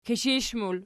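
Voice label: "kishish" plural, Archi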